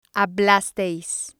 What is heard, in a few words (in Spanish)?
"hablasteis", spanish